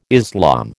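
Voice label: "Islam", American English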